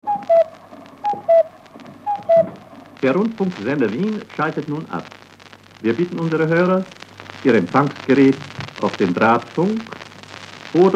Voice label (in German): Kuckuck, Radio Wien schaltet ab